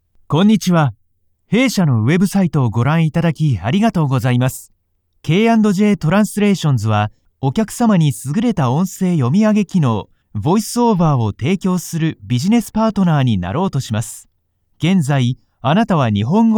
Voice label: japanese male

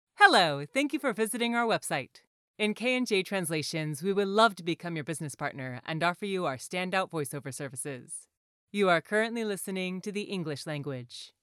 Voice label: english female

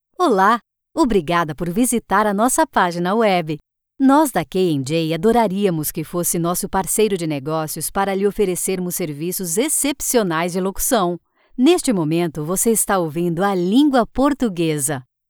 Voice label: portuguese female

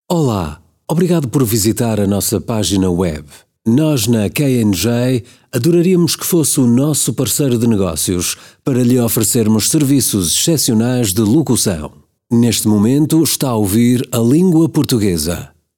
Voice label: portuguese male

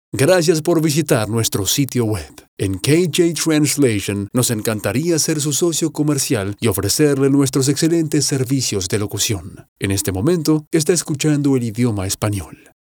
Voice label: spanish male

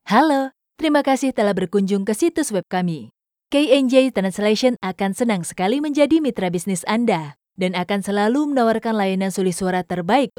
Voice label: indonesian female

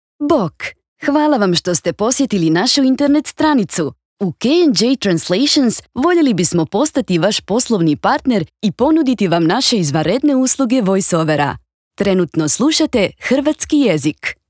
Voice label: croatian female

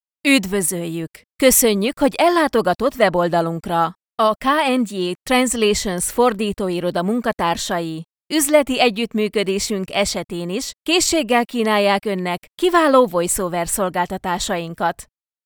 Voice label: hungarian female

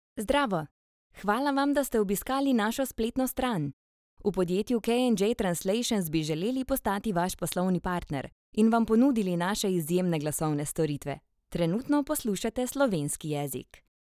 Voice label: slovenian female

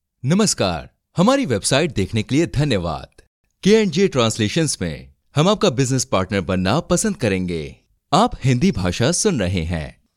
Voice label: hindi male

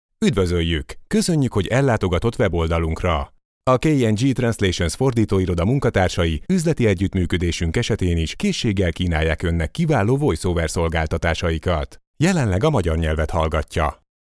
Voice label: hungarian male

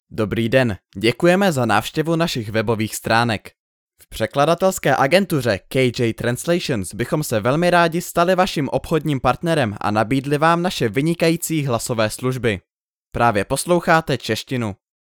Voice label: czech male

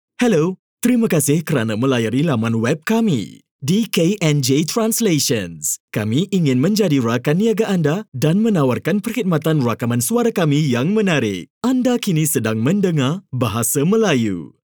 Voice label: malay male

